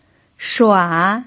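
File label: "shua", Mandarin Chinese pinyin, third tone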